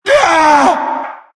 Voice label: pain; source engine; tf; halloween merasmus